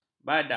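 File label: "badak", Malay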